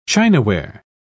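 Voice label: "chinaware", English, British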